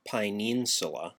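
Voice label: "paeninsula", classical Latin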